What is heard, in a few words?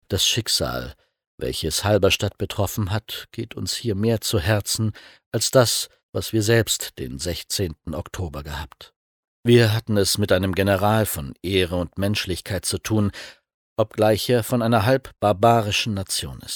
audioguide